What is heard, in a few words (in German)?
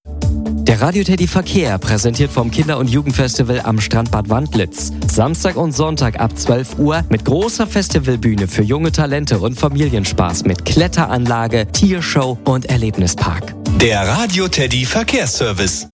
Ansage Radio